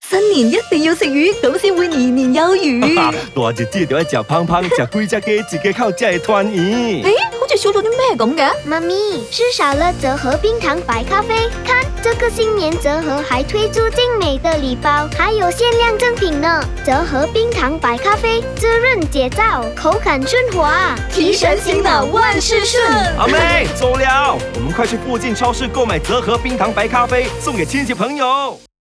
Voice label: Radio AD; Chek Hup